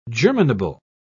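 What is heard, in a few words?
"germinable", British English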